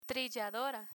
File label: "trilladora", Spanish